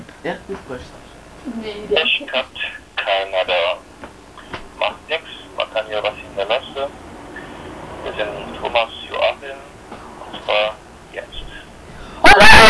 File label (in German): Mailbox